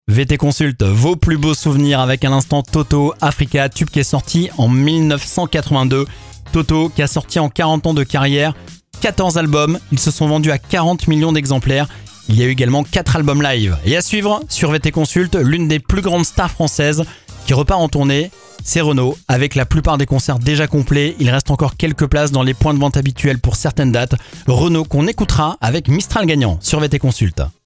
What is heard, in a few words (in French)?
adulte